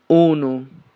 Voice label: "1" Sardinian

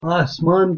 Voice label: "asman", Urdu

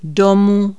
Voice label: Czech house

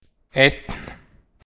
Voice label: "eitt" Icelandic, 1

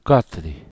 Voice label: "quatre", Occitan

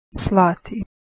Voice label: "slati" Bosnian Serbian Croatian

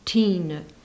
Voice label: "tine" East Frisian

